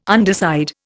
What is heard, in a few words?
"underside", Indian